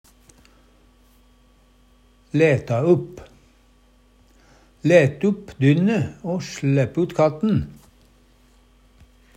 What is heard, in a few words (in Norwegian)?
leta upp, Numedalsmål; opne, late opp; Læt upp dynne o slepp ut katten.